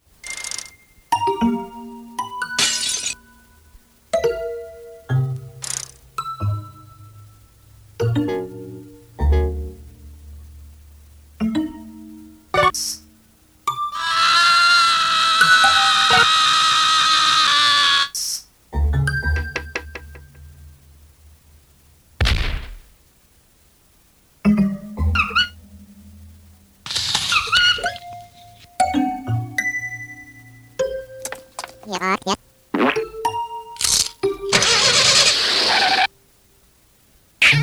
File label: Newscaster